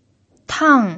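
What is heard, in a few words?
"tang", Mandarin Chinese pinyin, fourth tone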